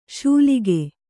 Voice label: "ಶೂಲಿಗೆ", kannada